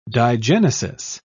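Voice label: "digenesis", en, British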